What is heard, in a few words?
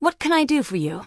Human Female Warrior NPC Greeting